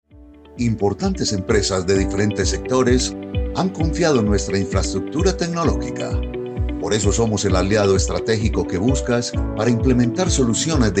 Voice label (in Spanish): CORPORATIVO